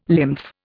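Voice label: "lymph", Indian English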